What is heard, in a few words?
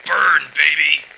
burn baby, male